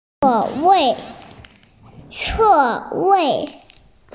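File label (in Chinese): "zuo4wei4", Mandarin Chinese, female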